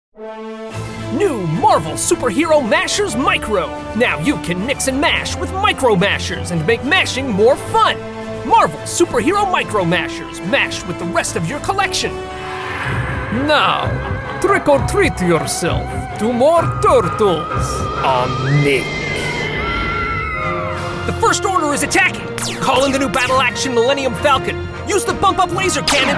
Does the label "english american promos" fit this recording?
Yes